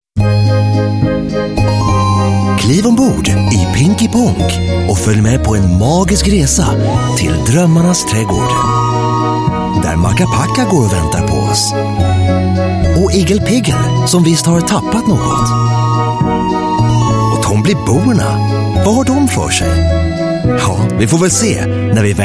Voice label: Swedish, Swedish Male; In The Night Garden